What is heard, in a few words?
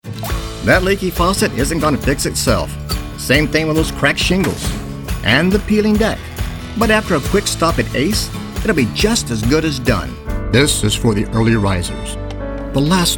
Commercial